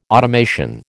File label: "automation", American